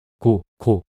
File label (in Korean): "고코" 낱소리 남자